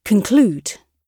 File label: conclude, gb